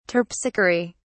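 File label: "terpsichore", English, British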